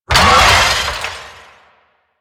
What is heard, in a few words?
Necramech Melee Attack, Barks; Warframe